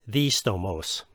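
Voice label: "distomos", Koine Greek; sword; Hebrews 4:12-13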